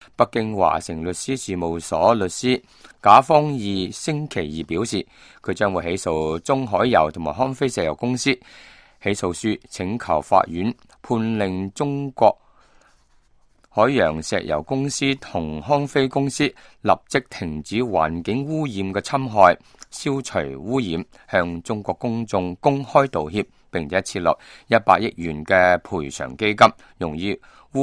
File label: cantonese